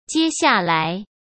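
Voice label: "接下来", Mandarin Chinese; hsk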